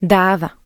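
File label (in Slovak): "dáva", Slovak; Logopedicka Platforma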